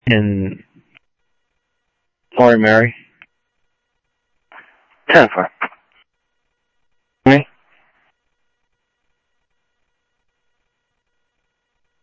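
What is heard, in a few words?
Sheriff Lake Patrol, scanner audio trunk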